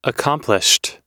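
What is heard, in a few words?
"accomplished", American English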